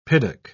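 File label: "piddock", British English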